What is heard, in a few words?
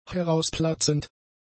"herausplatzend", German; adjectives